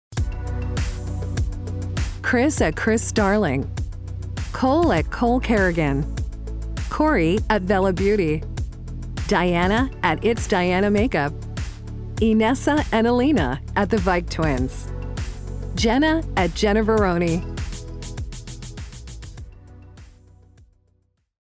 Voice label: Commercial Promo